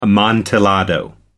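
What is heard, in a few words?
"amontillado", English, British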